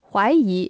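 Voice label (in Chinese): "怀疑" Chinese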